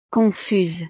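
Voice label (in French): "confuse", French; estados animo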